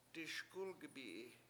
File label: "diSkUlgibi7i" I come to school, Washo